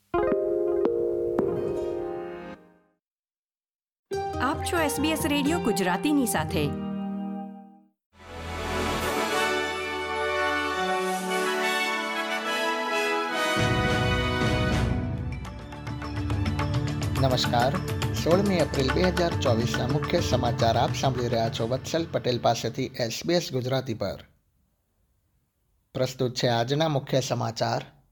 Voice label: gujarati news bulletin; sbs